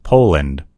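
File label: "poland", English; gcompris; geography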